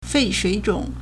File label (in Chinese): "fei4shui3zhong3", Chinese